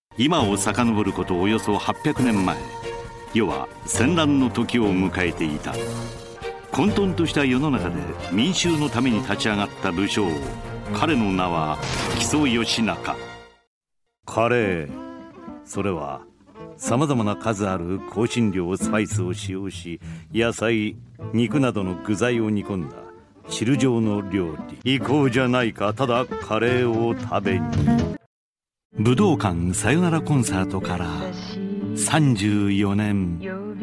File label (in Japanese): 日本語ナレーター 男性; Japanese male narrators; GAIKEI Models & Narrators; ナレーター人生３８年以上・・・酸いも甘いも噛み分けてきた自称・声の多重人格人間！